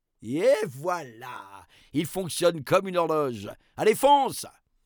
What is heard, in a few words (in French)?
narrateur; ebike réparé; La Fabrik